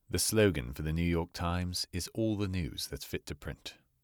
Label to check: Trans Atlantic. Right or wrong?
Right